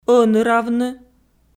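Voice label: "ӧныравны", Komi, female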